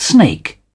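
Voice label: "snake", British English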